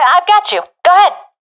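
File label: covering friend, professional female; vo; agents; Source Model Packs; Counter Strike Model Pack; CS2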